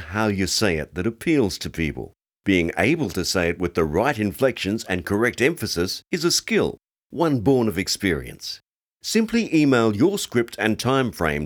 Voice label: Voice over